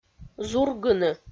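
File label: "зургыны", Komi, female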